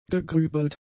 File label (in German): "gegrübelt", german; adjectives